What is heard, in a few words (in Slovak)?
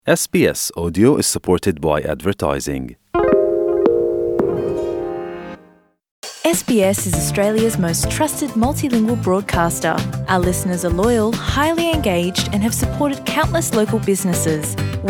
slovak; sbs